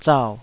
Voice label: "zau3", Taiwanese